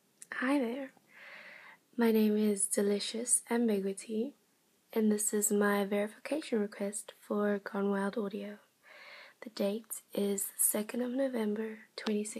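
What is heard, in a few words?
Verification, Female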